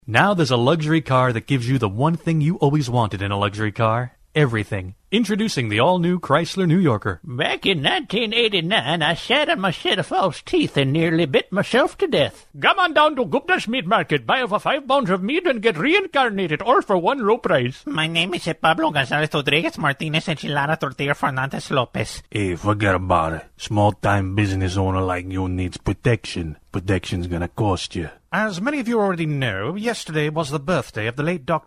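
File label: Character Voice Demo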